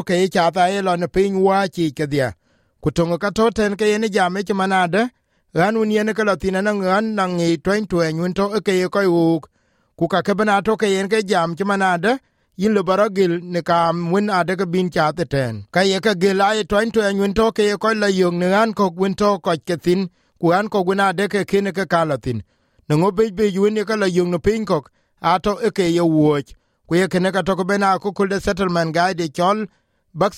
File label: dinka; Essential vaccinations Australians may need when travelling overseas; SBS Dinka